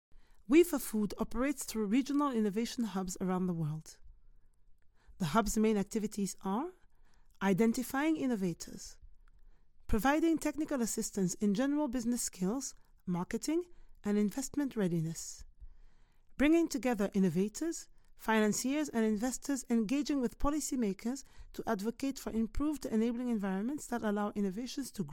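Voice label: Afrikaans English Corporate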